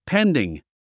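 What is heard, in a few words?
"pending", English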